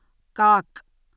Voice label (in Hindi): "काक", Hindi; hindi script